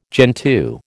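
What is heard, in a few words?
"gentoo", American English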